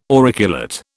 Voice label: "auriculate", British